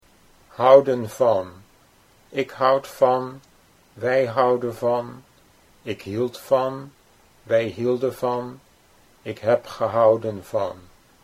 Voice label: "houden van ik houd van", Dutch

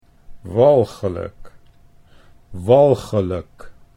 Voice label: "walgelijk", Dutch

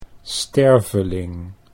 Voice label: "sterveling", Dutch

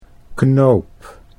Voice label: "knoop", Dutch; 'knot' 'button'

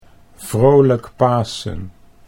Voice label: "vrolijk Pasen", Dutch

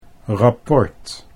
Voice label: "rapport", Dutch

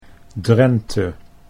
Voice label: "Drente", Dutch

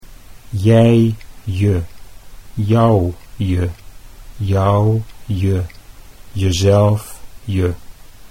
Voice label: "jij je", Dutch

